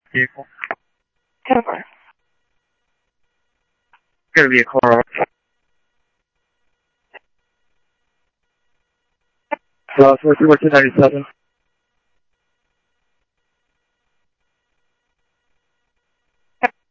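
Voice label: police radio dispatch, Scottsdale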